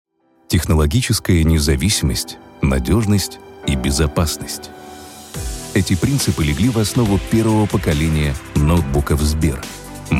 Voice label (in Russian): zakadrovyy tekst informacionno; golos webinar